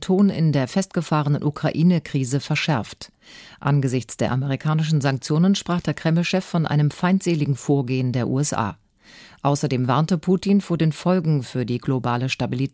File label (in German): Nachrichten